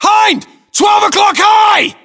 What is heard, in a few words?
voiceovers, US; english